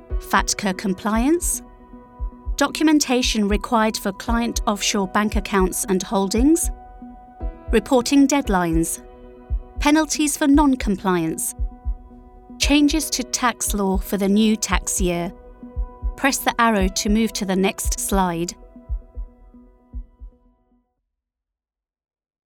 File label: Corporate Finance Training